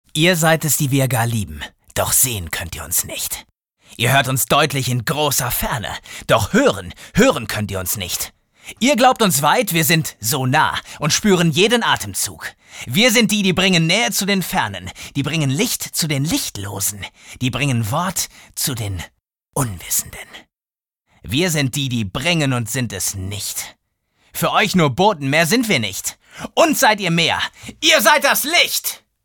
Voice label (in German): aggressiv, maenner